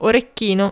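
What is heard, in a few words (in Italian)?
"orecchino", italiano; ktuberling; games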